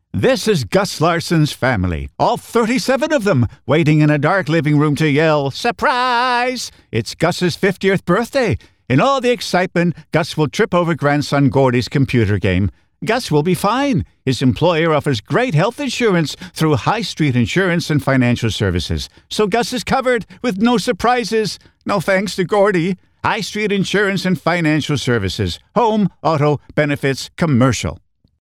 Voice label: Insurance CM

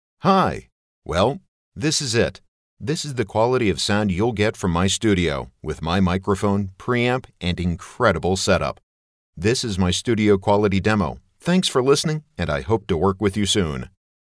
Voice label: Studio Quality

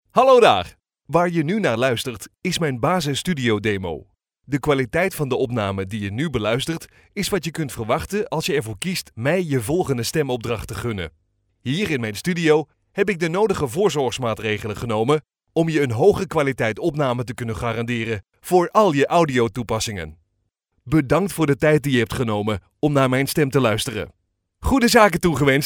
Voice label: Recording from home studio

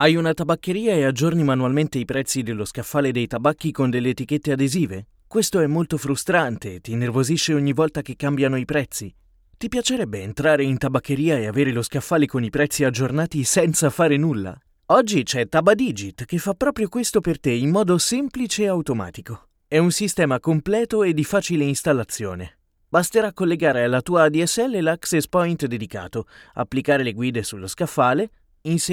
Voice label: corporate sample

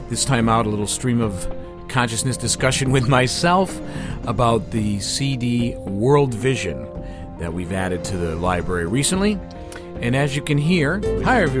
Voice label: Podcast Host

